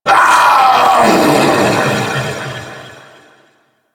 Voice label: Vocal Death